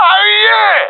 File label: round winning, professional epic; vo; Counter Strike Model Pack; CS:GO